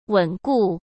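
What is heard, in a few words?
"稳固", Mandarin Chinese; chinese-flashcards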